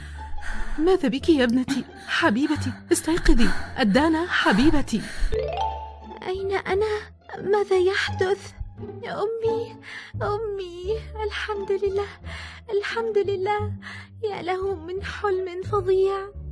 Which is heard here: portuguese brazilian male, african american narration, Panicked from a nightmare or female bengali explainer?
Panicked from a nightmare